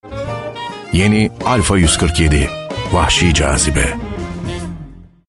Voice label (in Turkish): kurumsal imaj